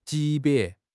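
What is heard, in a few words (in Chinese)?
"级别", Chinese; HSK6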